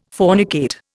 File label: "fornicate", Indian